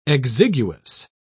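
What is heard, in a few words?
"exiguous", British English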